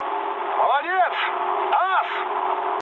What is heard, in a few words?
trick, speech ru